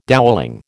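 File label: "dowelling", American English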